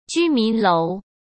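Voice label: "居民楼", Mandarin Chinese; chinese-flashcards; hsk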